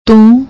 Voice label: "too", Punjabi; ਤੂੰ